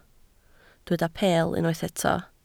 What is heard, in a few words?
"peel", Welsh